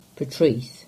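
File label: "Portreath" English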